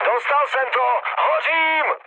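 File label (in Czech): Czech on fire, mood medium; War Thunder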